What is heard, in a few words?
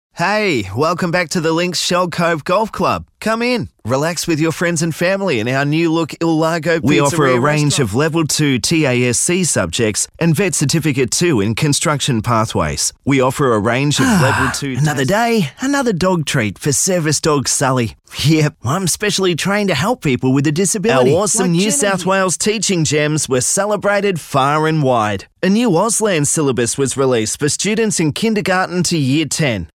Natural